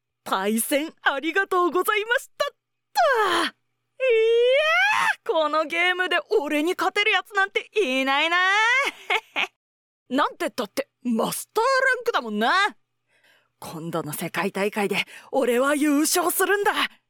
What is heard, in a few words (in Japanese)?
少年系